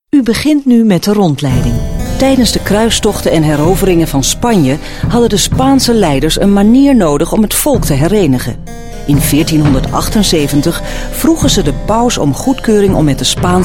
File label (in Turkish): Hollanda Kadin Felemenkce